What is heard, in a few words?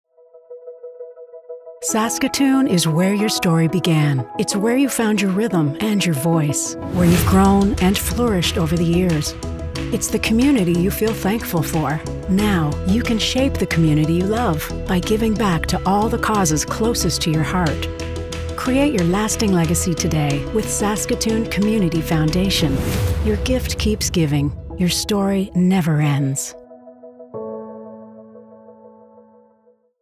Internet Ad